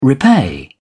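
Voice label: "repay", British English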